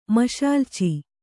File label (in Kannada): "ಮಷಾಲಚಿ", Kannada